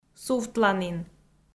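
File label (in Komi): "сувтланін", Komi, female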